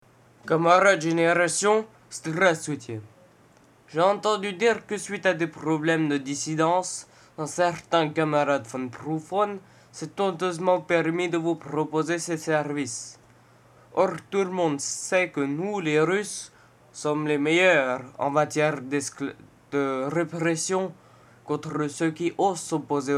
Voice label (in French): Message, le repondeur